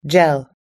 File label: "gel", us english